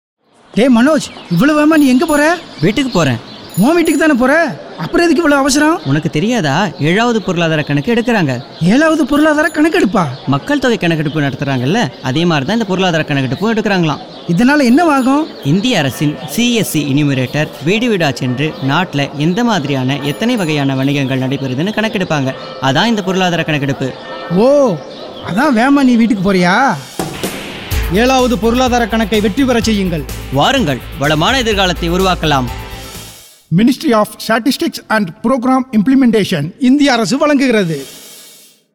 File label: Tamil Radio Spot; economic census; seventh economic census